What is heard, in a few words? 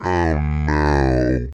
Deep Oh No